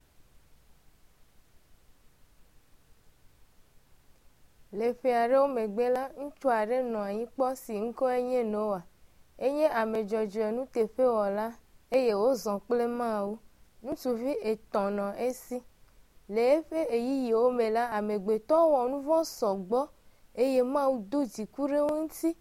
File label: ewe, bible stories